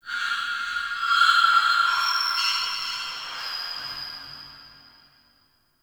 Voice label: Sentient Lair Spirit Chatter, Ambience; Warframe

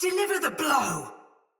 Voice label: Attack Heavy Soldier Mace Agent Female; Battle; Sinecure Melee

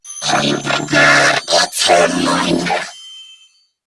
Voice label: Female, Grineer; New War; Narmer; Patrol

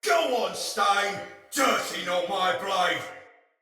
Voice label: See Player, Soldier Male; Battle; Barks; Dialog; Sinecure Soldier Male B

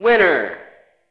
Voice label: Arcade Voices

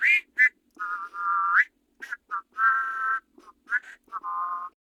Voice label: Patrol, Male

